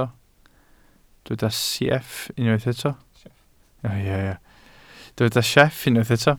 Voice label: "sieff", Welsh